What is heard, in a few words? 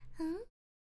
Girl Asking